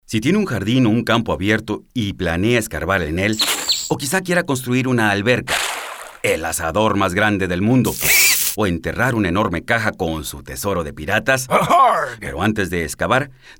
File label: call before you dig radio spanish